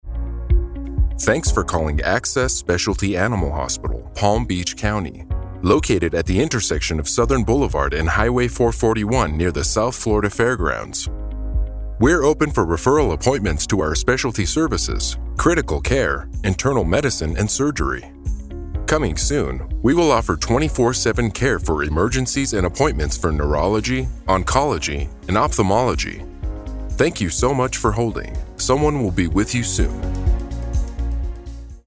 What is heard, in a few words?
ivr